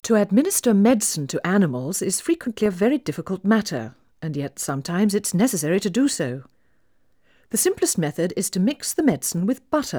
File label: Female speech English Mono output noised; 3bit